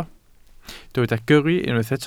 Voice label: "gyrru", Welsh

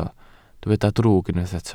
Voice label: "drwg", Welsh

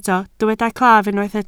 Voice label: "claf", Welsh

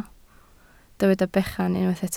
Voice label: "bechan", Welsh

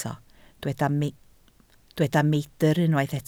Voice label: "meidr", Welsh

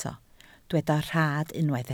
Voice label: "rhad", Welsh

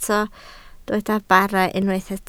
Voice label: "bara", Welsh

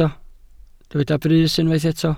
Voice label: "brys", Welsh

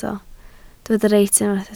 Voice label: "reit", Welsh

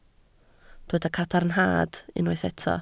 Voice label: "cadarnhad", Welsh

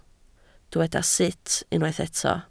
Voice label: "sut", Welsh

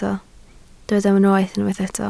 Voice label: "noeth", Welsh

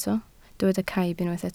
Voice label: "caib", Welsh

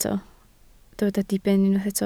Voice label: "diben", Welsh

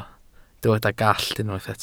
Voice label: "gallt", welsh

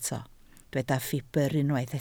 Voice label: "ffibr", Welsh

